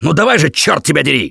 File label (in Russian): defibrillator, left4dead2 russian, Survivor Voice